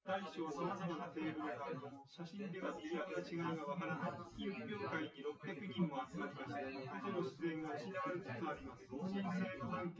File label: male synth conv 250ms 5cm sim; SiSEC08; SiSEC underdetermined